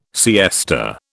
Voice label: "siesta", British